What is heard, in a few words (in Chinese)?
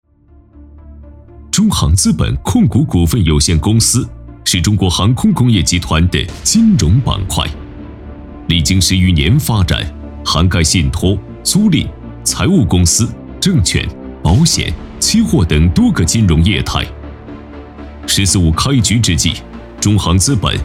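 企业宣传大气恢弘绿色发展纪实; 中航资本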